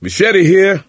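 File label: melee weapons, voice, male; Left 4 Dead 2; coach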